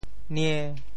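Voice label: "nie~5", Teochew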